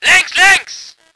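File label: voice german vehicle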